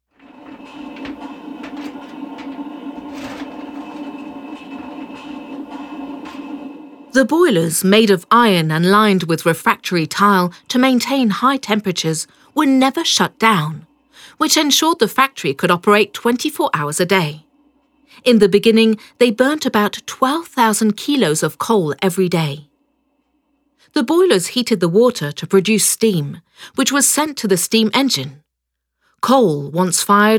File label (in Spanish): audioguia; fabrica textil engl